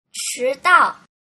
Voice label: "chidao", mandarin

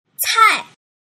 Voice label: "cai", mandarin

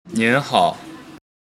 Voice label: "nin hao", mandarin

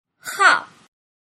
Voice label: "hao", mandarin

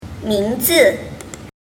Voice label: "ming zi", Mandarin

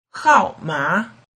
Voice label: "haoma", mandarin

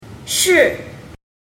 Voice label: "shi", mandarin

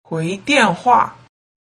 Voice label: "huidianhua", mandarin

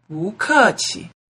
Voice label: "bukeqi", mandarin

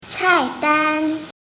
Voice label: "cai dan", Mandarin

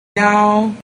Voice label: "yao", mandarin

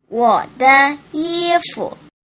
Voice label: "wodeyifu", mandarin

